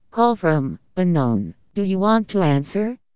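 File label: Caller Announce english; LG VS750 Fathum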